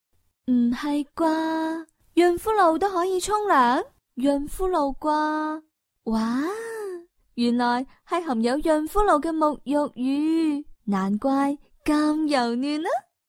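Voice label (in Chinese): 女粤16 港式粤语广式粤语Commercials 娓娓道来 积极向上 时尚活力 亲切甜美; Commercials-J&J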